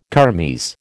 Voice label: "kermes", American